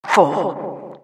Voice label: announcer begins